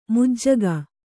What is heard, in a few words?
"ಮಜ್ಜಿಗೆ", Kannada